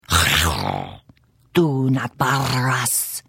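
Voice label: Hello, Khajiit female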